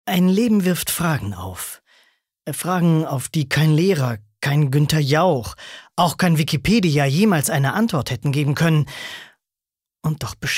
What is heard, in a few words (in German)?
Schauspiel Dynamisch